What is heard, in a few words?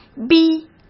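"逼", Mandarin Chinese; hsk; chinese-flashcards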